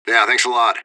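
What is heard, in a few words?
radio bot response positive; fbihrt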